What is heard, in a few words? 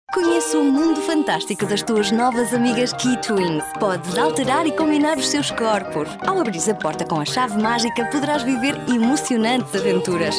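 Portuguese, female, commercial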